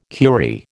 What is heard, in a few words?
"curie", American